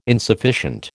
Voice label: "insufficient", American

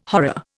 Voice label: "horror", Indian

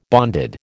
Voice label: "bonded", American

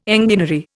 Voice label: "enginery", Indian

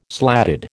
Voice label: "slatted", American

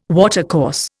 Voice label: "watercourse", Indian

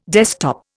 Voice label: "desktop", Indian

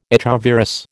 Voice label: "echovirus", American

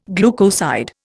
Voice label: "glucoside", Indian

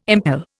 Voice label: "impel", Indian

